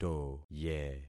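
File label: "toye", concatenated syllables; Affixing experiment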